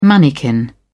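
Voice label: "manikin", British English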